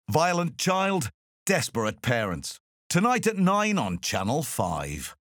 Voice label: Violent Child